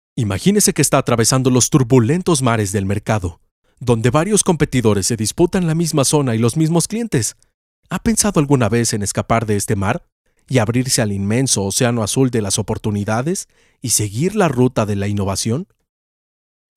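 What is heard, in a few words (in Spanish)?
e-learning, Mexico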